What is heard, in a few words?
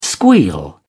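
"squeal", British English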